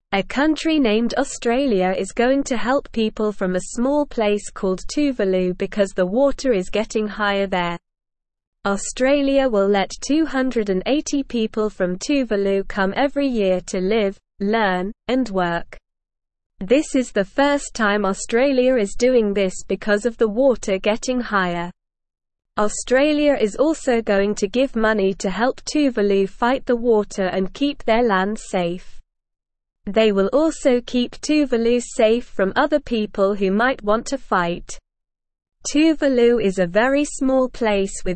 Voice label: Beginner SLOW Reading, English; English Newsroom; Australia Helps Tuvalu as Water Rises Offers Support

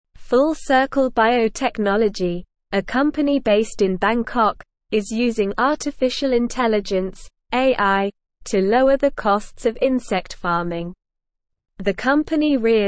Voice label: English SLOW Reading; Insect Farms Turn to AI to Lower Costs

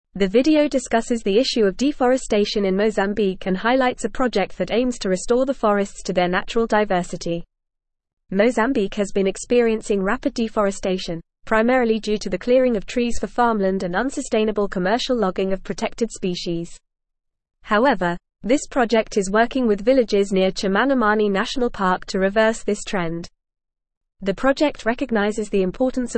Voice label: English FAST Reading; Restoring Mozambiques Forests A Sustainable Partnership for C

